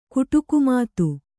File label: "ಕುಟುಕುಮಾತು", Kannada